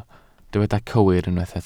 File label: "cywir", Welsh